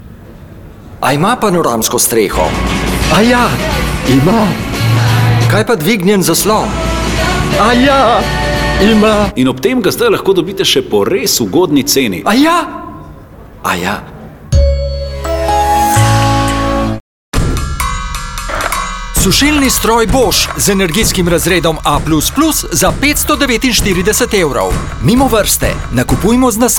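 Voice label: Commercial Voiceover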